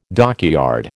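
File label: "dockyard", American English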